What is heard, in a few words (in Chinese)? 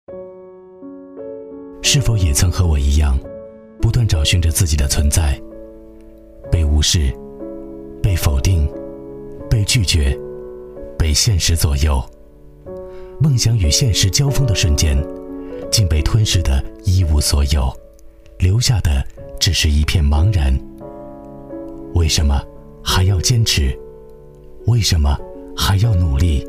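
男S347 国语 男声 公益广告-轻松自然 大气浑厚磁性 沉稳; 不忘初心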